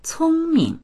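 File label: "cong1ming", Mandarin Chinese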